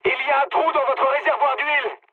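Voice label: French damaged oil mood high; War Thunder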